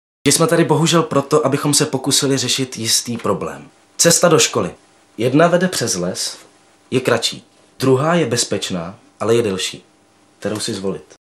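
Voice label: male Czech documentary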